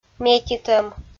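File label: "метитӧм", Komi, female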